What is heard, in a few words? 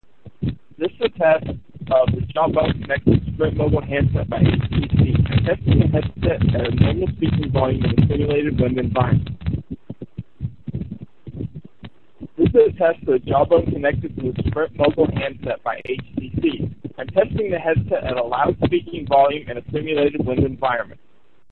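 wind, Bluetooth headset; Jawbone; Mogul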